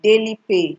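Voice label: "dailypay", Nigerian Pidgin, female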